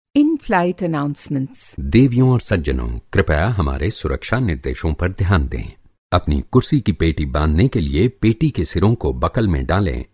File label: Hindi Male